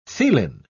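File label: "theelin", British English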